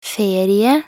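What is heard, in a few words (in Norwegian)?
"ferie", Norwegian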